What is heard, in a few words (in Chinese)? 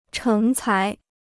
"成才", Chinese